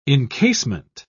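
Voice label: "incasement", British English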